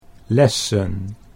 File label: "lessen", Dutch